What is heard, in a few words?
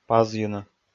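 "пазйыны", Komi, male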